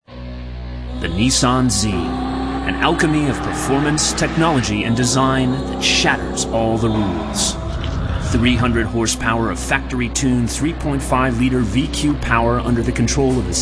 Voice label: male narration